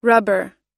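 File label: "rubber", American English; rubber /rʌ́bɚ; ゴム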